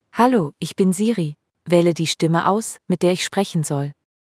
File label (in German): Siri Stimme